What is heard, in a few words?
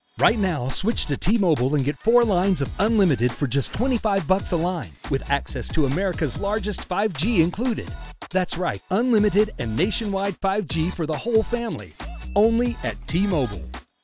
RADIO SPOT; T-MOBILE